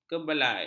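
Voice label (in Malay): "kebelai", Malay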